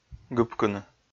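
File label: "гыпкыны", Komi-Zyrian, male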